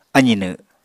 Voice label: "azinw", Apatani